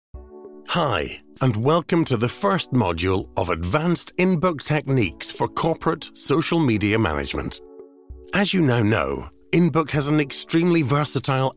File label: english scottish e-learning